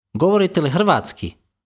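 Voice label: Bosnian, male, Sprechen Sie Kroatisch?; Govorite li hrvatski?; Bosnisch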